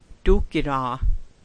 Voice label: Wait, language class dictionary